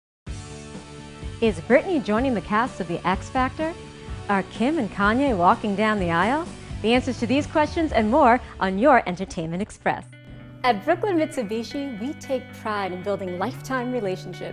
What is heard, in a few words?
Voice Over Reel